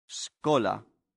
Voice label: "schola", latin; santillana